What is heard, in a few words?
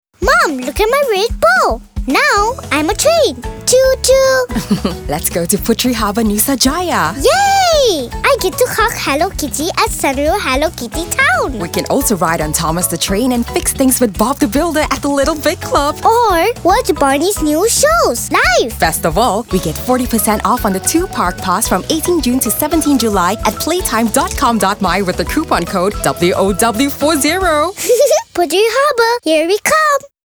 Ramadhan ENG; Puteri Harbour